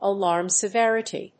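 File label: "alarm severity", English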